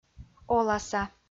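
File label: "оласа" Komi-Zyrian, female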